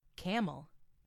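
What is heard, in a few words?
"camel", English; FoodChain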